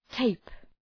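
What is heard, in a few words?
"tape", English; {teıp}; (Ουσιαστικό)● ταινία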